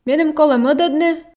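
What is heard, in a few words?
Komi, female; меным колӧ мӧдӧдны ...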